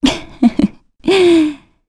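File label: Vox Happy, Korean; Valance; kings raid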